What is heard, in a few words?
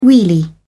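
"wheelie", British English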